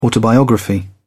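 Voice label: "autobiography", British English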